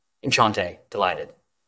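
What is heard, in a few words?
Voice Acting, Spanish, male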